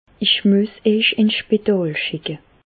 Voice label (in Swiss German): spital, Bas Rhin; medecine